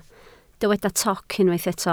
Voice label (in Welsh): "toc", Welsh